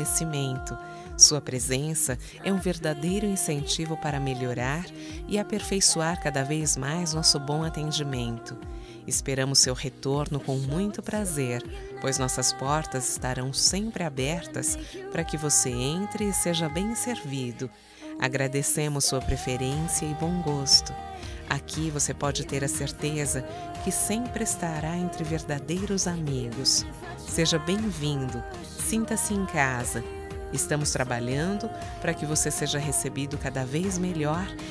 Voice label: Cliente autonomo - F, Telemensagens Empresarial; fem; Telemensagens 2017

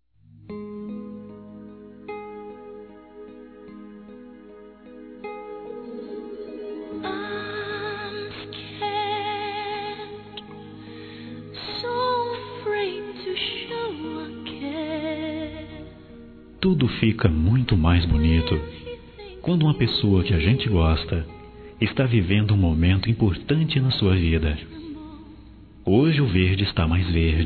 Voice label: Aniversario Geral M

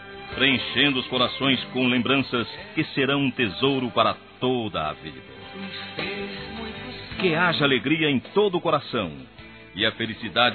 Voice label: NATAL NEUTRO M; Telemensagens; Natal e Ano novo

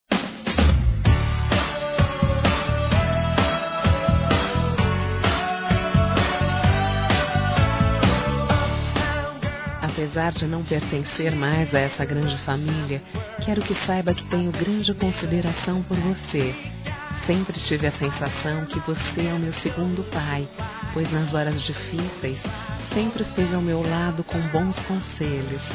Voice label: EX SOGRO F, Telemensagens, Aniversários, Fem; Aniv Familiar

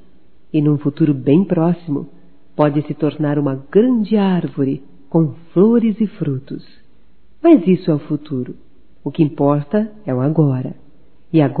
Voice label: ENCONTREI VOCÊ, Românticas, fem

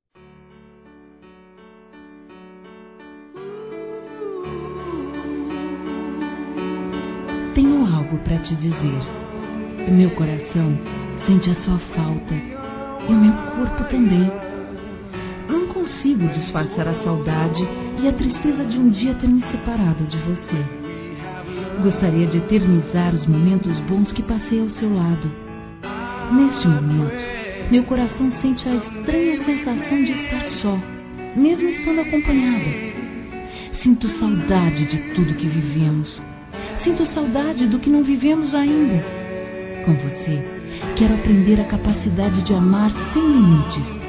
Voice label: Conquista Ex Esposo Feminino; Telemensagens; Conquistas Cantadas paqueras; Telemensagens 2017

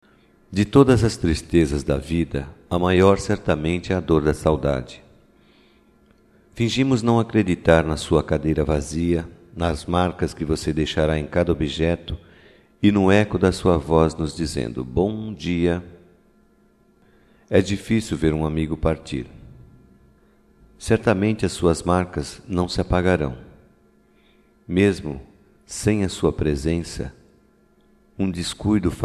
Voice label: DESPEDIDA (PARA COLEGA DE TRABALHO) M, Telemensagens

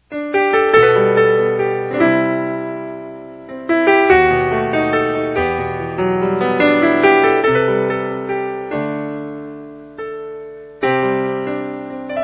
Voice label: mensagem romântica, Gospel, masc

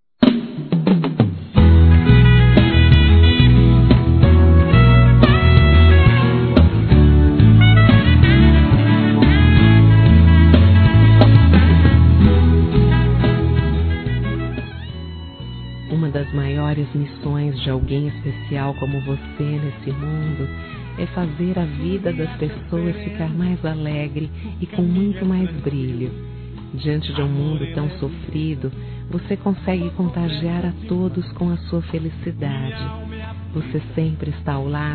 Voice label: GERAL F; Aniversários; Aniversários diversos; fem

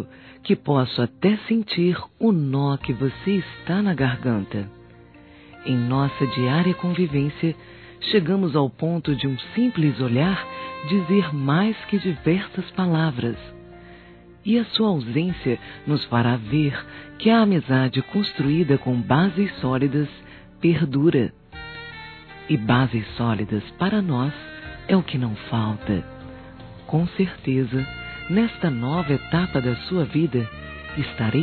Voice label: DESPEDIDA P AMIGO SERVIÇO F; Telemensagens; Despedida Boas Vindas; Telemensagens 2017

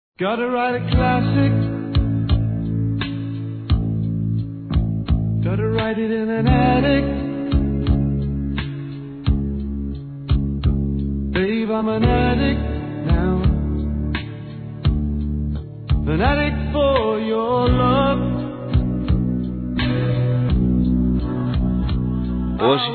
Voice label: Homenagem Irmã Masculino; Homenagens

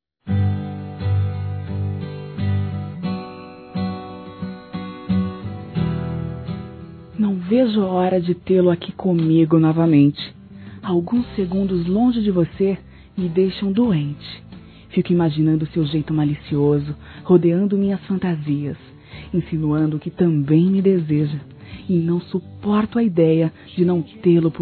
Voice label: SAUDADE VIAGEM (F), Sensuais; Telemensagens; fem

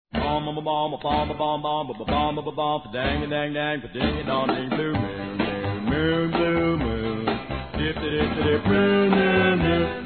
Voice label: FILHA, masc; Aniversários; Aniversários diversos

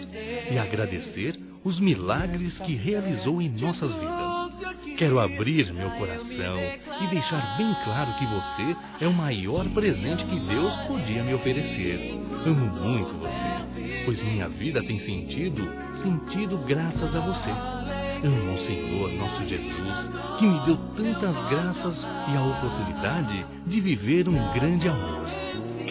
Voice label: NATAL ROMANTICO, masc

